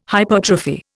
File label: "hypertrophy", Indian